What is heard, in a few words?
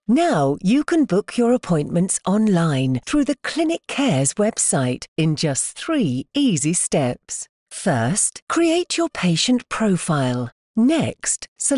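Explainer, A velvety, articulate voice, Articulate, Sophisticated, engaging Female Voice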